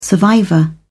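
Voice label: "survivor", British English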